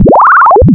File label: complain